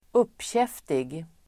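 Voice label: "uppkäftig", Swedish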